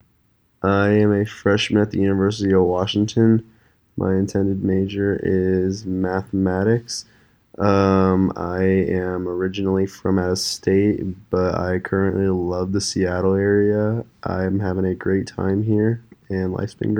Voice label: voice Deep